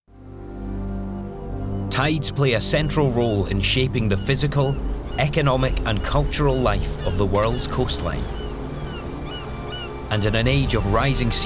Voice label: Documentary